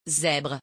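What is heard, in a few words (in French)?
"zebre", French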